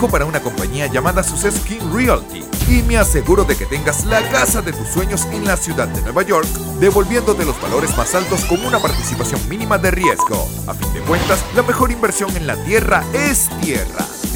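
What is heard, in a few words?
Spanish Latin America (Venezuela), Male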